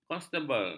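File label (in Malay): "konstabel", Malay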